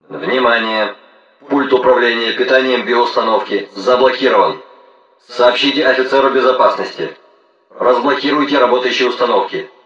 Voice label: laboratory system message

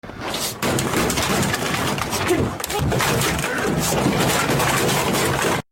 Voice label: stuped man raging

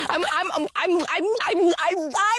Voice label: im stuttering

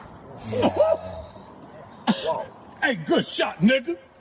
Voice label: aye good shot

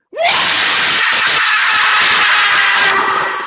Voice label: help please loud

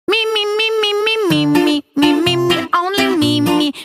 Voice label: mimimi sound

